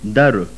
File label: voiced dental, sindhi